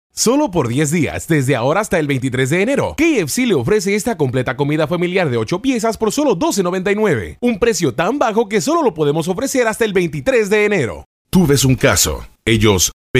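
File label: Spanish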